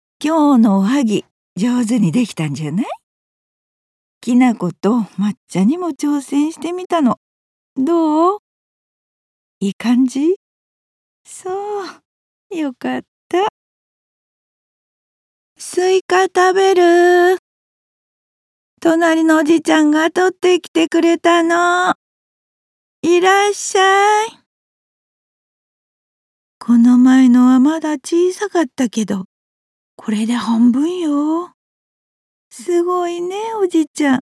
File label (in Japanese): ほのぼの会話